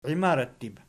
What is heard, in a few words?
"iimarattib", Archi